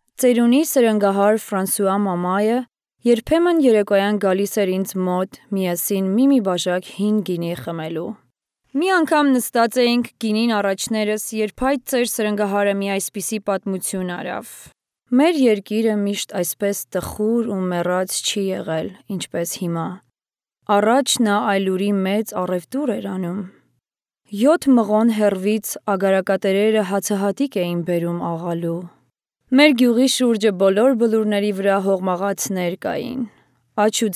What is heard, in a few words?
Armenian, Armenian voice overs